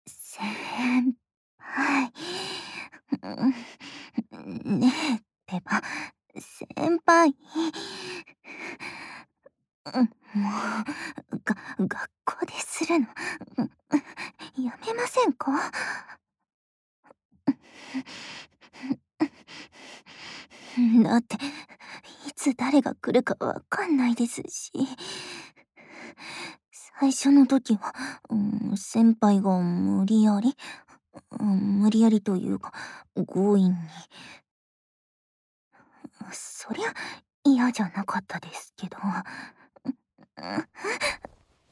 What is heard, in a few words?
Whisper, ASMR